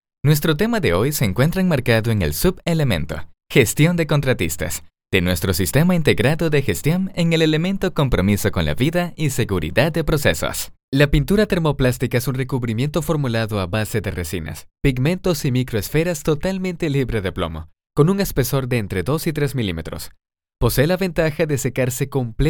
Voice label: e-learning